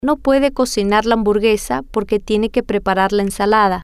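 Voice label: No Puede Cocinar Hamburguesa Preparar La Ensalada, Spanish, female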